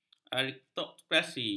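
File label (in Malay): "aristokrasi", Malay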